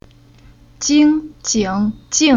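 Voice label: "Jing", Chinese syllable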